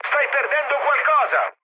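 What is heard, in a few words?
Italian tank damaged mood med, speech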